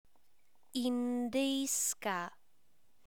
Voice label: "indyjska" Polish